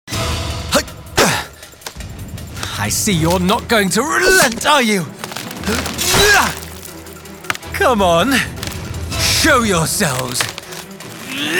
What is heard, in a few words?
male english multiple accents gaming compilation